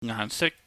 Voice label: "ngaahnsik", Cantonese